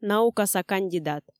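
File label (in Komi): наукаса кандидат, Komi, female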